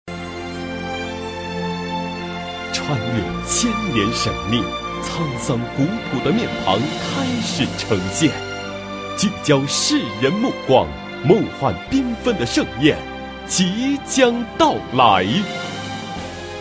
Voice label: 男, 国语男声配音; 张家界福建推介会系列-魅力湘西

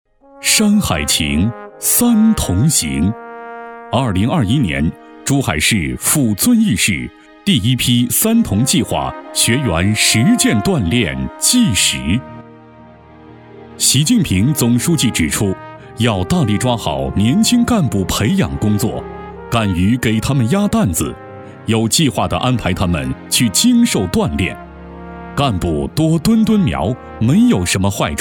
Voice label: 男 专题片 浑厚; 山海情

